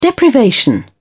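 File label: "deprivation", English, British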